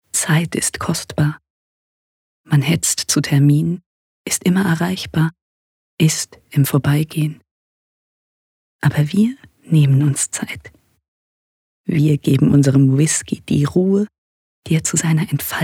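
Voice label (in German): Werbung, Sanft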